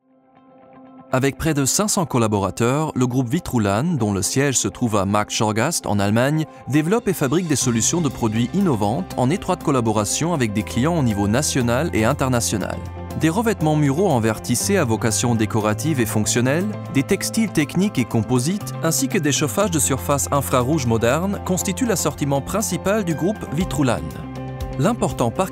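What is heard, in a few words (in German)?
Französisch Image; Vitrulan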